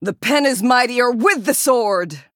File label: Female Human Play